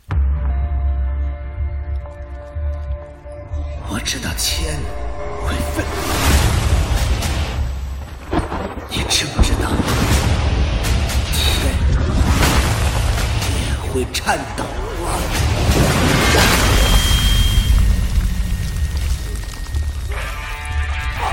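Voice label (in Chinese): 角色配音预告沙哑; 悟空传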